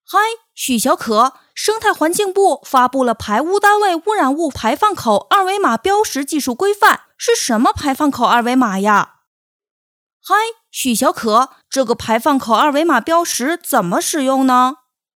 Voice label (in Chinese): 男童